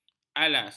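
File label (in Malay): "alas", Malay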